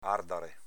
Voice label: "ardare", dialetto veneto; àrdare v. ardere, bruciare

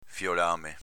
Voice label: "fiolame", dialetto veneto; s.m.inv. discendenza

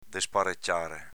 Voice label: "despareciare", dialetto veneto; despareciāre v. sparecchiare

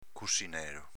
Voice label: "cussinelo", dialetto veneto; s.m. piccolo; cuscino

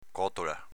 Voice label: "cotola", dialetto veneto; còtola s.f. sottana

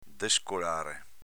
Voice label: "descolare", dialetto veneto

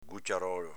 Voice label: "guciarolo", dialetto veneto; s.m. agoraio

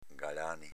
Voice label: "galani", Veneto

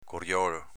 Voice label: "coriolo", dialetto veneto; coriòlo - curiòlo; s.m. sentiero, viottolo

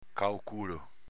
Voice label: "caoculo", dialetto veneto; caocùlo (a, de); avv. a rovescio

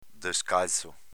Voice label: "descalzo", dialetto veneto; agg. scalzo

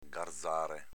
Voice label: "garzare", dialetto veneto; v. lavorare la lana

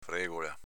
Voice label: "fregola", dialetto veneto; s.f. briciola.

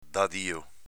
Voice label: "dadio", dialetto veneto; Vocabolario Veneto-Italiano

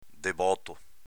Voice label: "deboto", Veneto

